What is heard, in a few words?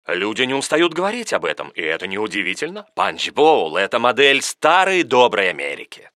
narrator